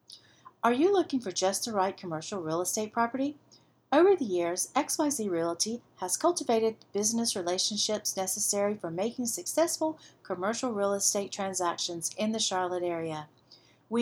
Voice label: Real Estate Recordings, voice over; XYZ Realty